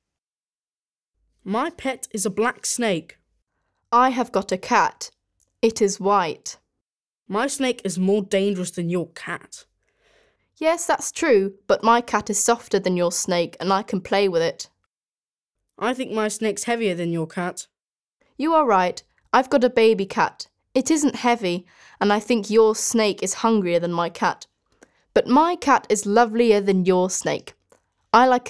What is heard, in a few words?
Children are talking about their pets